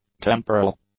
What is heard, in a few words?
"temporal", English; adjectives